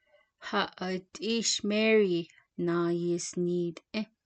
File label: What did Mary buy, Navajo